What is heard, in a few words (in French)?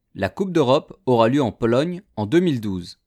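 la coupe deurope aura lieu en pologne en, French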